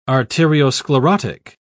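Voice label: "arteriosclerotic", English, British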